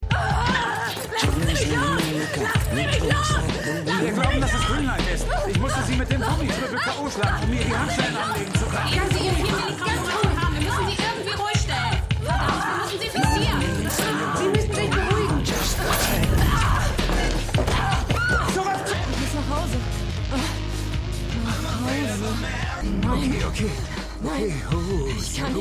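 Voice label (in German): Frau Ärztin Krankenschwester; Black Lightning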